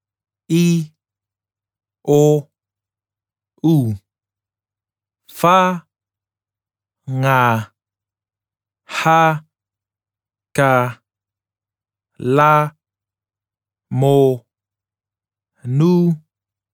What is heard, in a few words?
Niue alphabet male voice